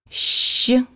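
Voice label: letter "Shch", Russian; bukvar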